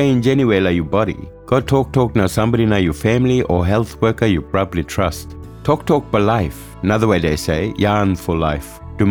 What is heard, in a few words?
Cancer Australia Radio, Kriol; NT Kriol